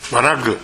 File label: "managu", minamiyamagata